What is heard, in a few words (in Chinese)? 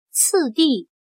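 "次第", Chinese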